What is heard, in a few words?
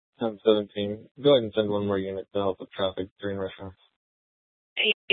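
police department Dispatch, scanner; TOPAZ Regional Wireless Cooperative (TRWC) - Mesa Simulcast; Mesa PD; Fiesta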